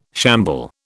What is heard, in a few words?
"shamble", British